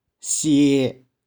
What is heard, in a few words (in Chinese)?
"shie1", 玉環